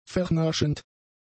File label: "vernaschend", German; adjectives